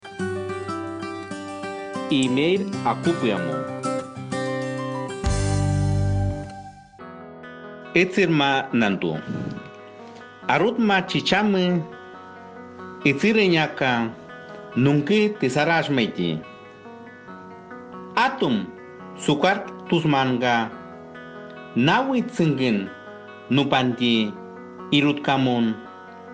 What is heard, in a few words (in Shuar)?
Domund shuar audio; MDomund 2019